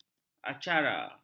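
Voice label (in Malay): "acara", Malay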